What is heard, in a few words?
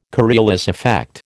"Coriolis effect", American